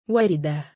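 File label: female name "wardah", Arabic; وَرْدَة; ワルダ; （1つの、1輪の、1本の）バラ、薔薇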